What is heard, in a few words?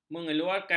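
"mengeluarkan", Malay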